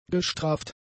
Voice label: "gestraft", german; adjectives

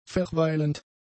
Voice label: "verweilend", German; adjectives

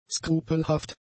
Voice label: "skrupelhaft", german; adjectives

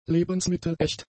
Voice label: "lebensmittelecht", German; adjectives

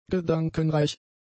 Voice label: "gedankenreich", German; adjectives

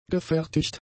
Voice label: "gefertigt", German; adjectives

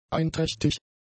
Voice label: "einträchtig", german; adjectives

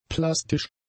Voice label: "plastisch", German; adjectives

